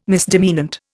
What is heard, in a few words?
"misdemeanant", Indian